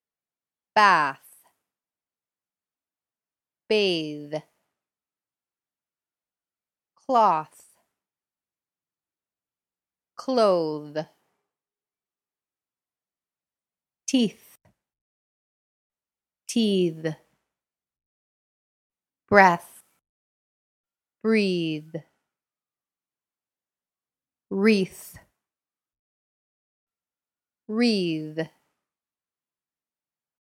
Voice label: Pronunciation Practice